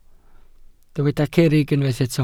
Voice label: "cerrig", Welsh